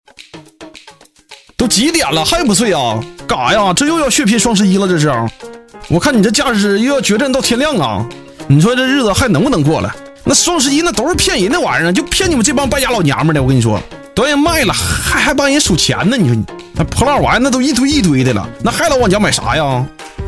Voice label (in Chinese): 男 东北方言 搞笑